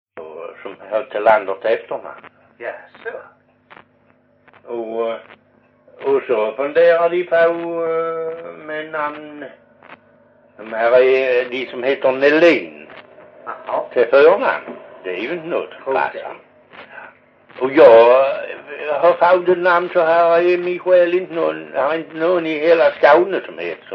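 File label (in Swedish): Perstorp Skåne, dialekter; Folkminnen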